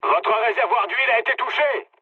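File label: damaged oil, mood medium, French; War Thunder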